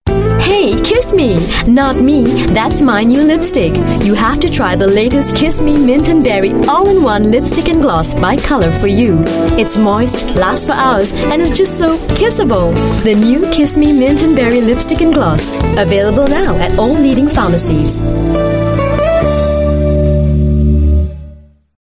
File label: Asian English color for you